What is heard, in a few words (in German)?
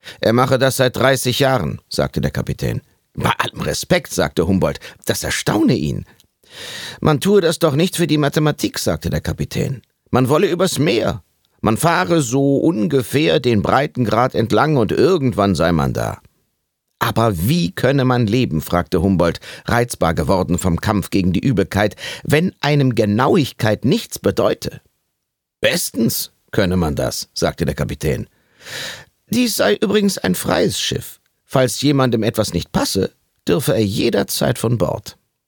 Hoerspiel